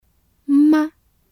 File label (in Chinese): "吗", Chinese; vocabulary